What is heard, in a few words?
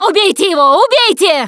battlecry tank, russian, Survivor Voice Producer